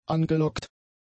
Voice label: "angelockt", German; adjectives

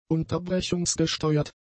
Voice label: "unterbrechungsgesteuert", German; adjectives

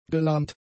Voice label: "gelahmt", German; adjectives

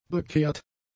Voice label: "bekehrt", German; adjectives

